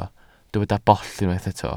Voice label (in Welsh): "bollt", Welsh